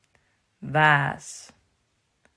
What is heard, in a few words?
"Waas", Dutch